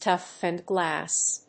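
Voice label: "toughened glass", English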